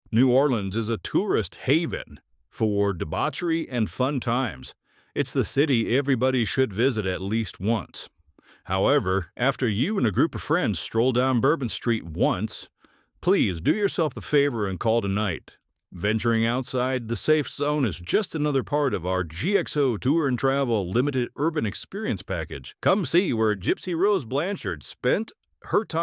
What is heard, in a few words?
MALE; GXO TOUR NEW ORLEANS